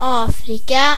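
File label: africa, Scottish Gaelic; gcompris-data; geography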